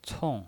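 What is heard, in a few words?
"cong", Mandarin Chinese, fourth tone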